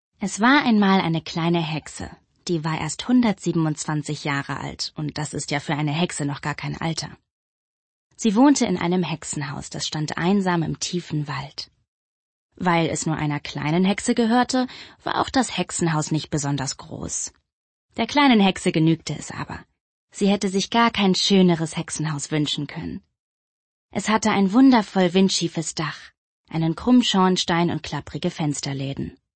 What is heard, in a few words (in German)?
Kinderhoerbuch